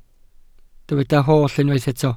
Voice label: "holl", Welsh